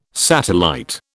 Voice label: "satellite", British